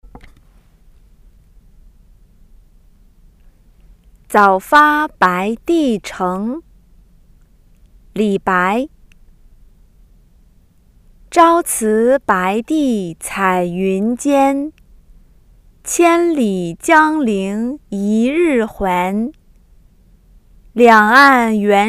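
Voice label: Speech Contest Zaofabaidicheng normal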